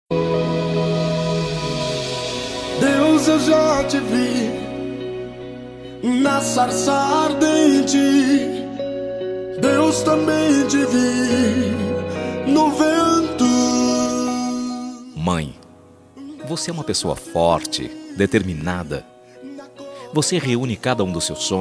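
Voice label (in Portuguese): DIA DAS MAES EVANGELICO male, telemensagem; DIA DAS MAES EVANGELICO MASC; telemensagem dia das maes evangelica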